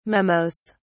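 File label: "memos", British English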